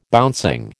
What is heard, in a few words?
"bouncing", American